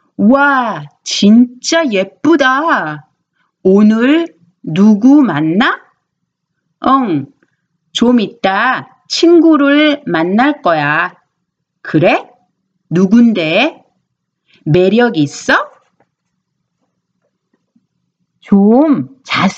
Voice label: Conversation